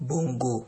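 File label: "bongo", Nigerian Pidgin, male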